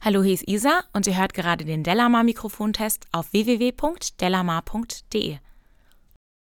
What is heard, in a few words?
speech f; lewitt lct540